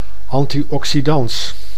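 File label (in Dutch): "anti-oxydans", Dutch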